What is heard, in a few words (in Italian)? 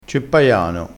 "ceppaiano", crespinese